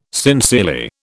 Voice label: "sincerely", British